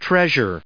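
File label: "treasure", English, us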